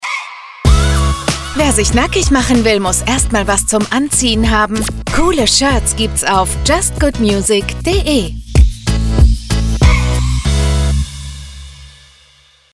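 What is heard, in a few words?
german radio imaging, Female; professional German voiceover artist and voice actor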